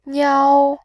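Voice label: "niao", Mandarin, tone 1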